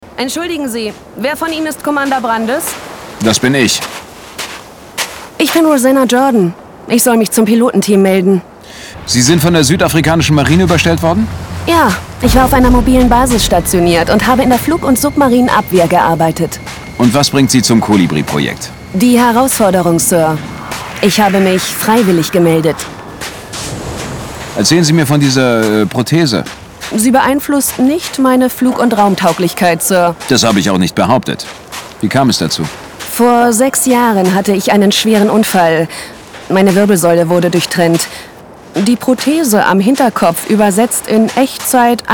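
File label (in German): Hörspiel; Mark Brandis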